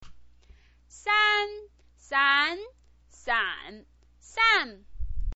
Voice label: "san", chinese pinyin